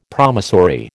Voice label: "promissory", American